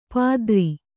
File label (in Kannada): "ಪಾದರಿ", Kannada